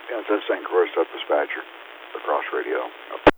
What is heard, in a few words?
BNSF St. Croix La Crosse Radio